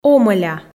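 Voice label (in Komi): "омӧля", Komi, female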